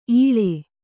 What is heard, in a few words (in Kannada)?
"ಇಳಿ", Kannada